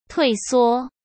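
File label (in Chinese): "退缩", Mandarin Chinese; hsk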